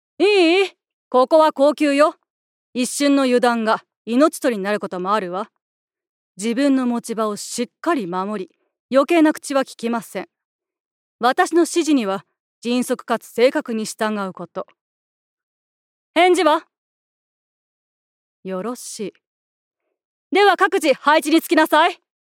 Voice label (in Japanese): 中国風女官長